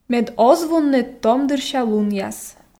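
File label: Komi, female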